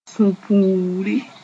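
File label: "sũ-puuri", Mooré; anger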